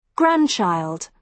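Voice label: "grandchild", British English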